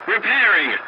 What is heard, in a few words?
voice message repairing; dialogs; War Thunder